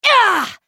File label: spellcast effort; Irelia; lol; character voices - english; en US